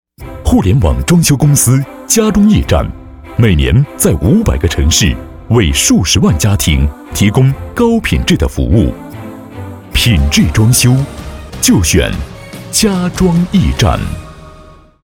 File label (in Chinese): 男 广告 家装